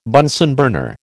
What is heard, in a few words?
"Bunsen burner", American